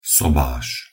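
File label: "sobáš", Slovak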